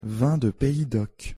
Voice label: pronunciation fr vin de pays doc